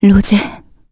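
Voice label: Vox, Korean; Valance; Rose; kings raid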